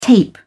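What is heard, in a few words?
"tape", British English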